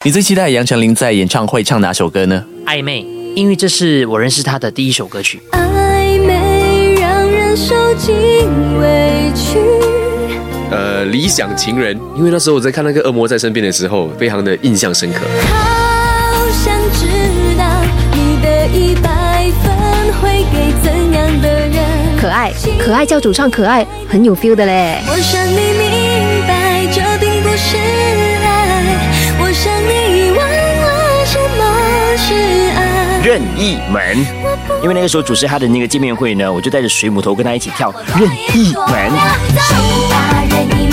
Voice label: Mandarin On Air Interview, Male; China

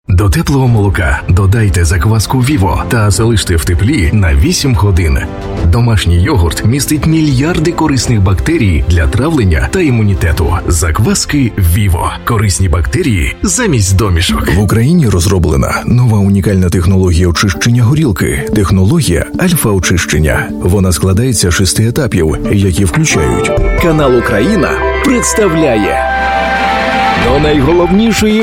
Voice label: Ukrainian, Ukrainian Male

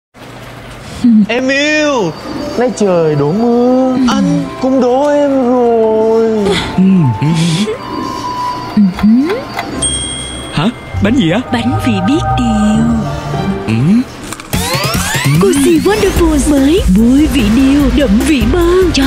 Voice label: Vietnamese Female

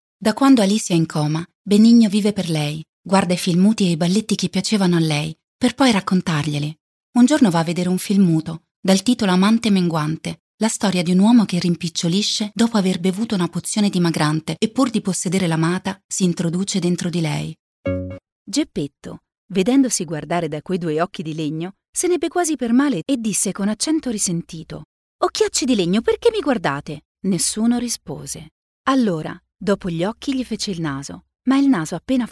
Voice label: Italian Audiobook Narration

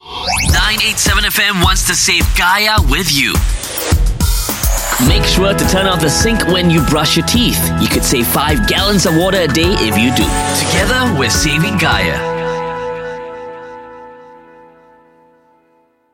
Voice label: SG English, Singaporean Male; 987; Gaia

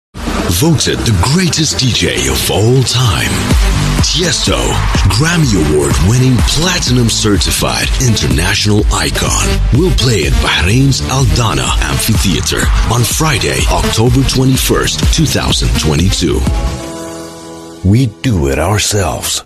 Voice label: Arabic English

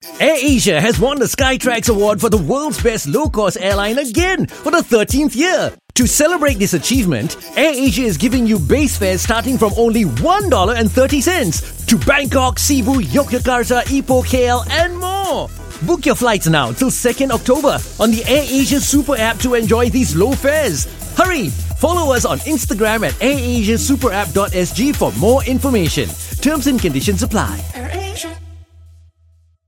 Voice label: Asian English; AirAsia

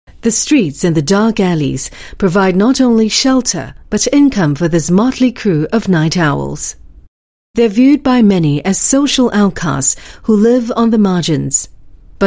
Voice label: Asian English Documentary Short

